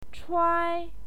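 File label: "chuai", Mandarin Chinese, first tone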